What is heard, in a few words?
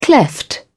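"cleft", English, uk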